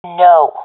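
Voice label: negative, professional female; vo; Counter Strike Model Pack; CS:GO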